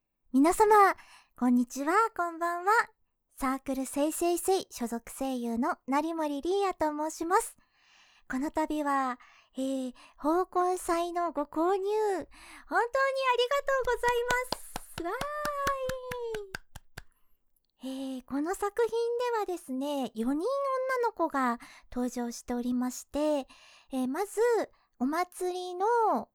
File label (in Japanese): フリートーク